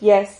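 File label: "quias", Spanish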